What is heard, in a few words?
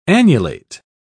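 "annulate", British English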